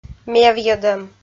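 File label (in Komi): "мевйӧдӧм", Komi, female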